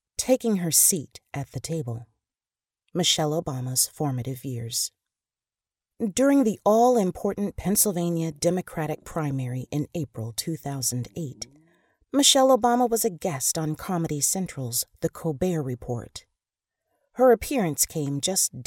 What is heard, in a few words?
memoir narrators voice